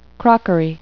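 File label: "crockery", English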